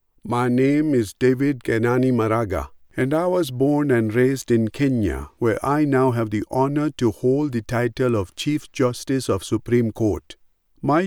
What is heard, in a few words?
Neutral African accented English